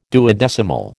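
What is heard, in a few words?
"duodecimal", American English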